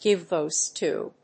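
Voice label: "give voice to", English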